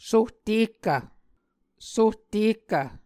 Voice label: "sohtehkv", Muscogee

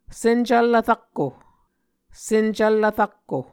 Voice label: "sencvllv rakko", Muscogee

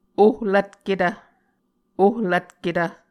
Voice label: "ohlvtketv", muscogee

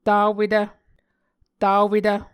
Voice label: "tawetv", Muscogee

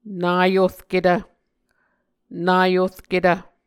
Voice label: "naorketv", Muscogee

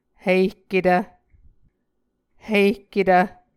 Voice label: "hihketv", Muscogee

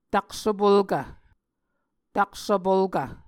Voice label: "taksvpolkv", muscogee

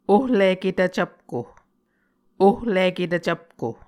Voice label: "ohliketv cvpko", Muscogee

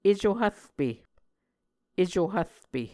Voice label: "eco hvrpe", muscogee

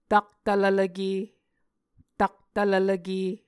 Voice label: "taktvlvlvke", Muscogee

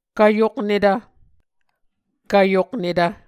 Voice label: "kvyoknetv", Muscogee

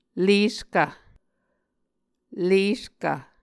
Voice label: "leskv", Muscogee

